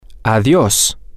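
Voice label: goodbye, spanish, male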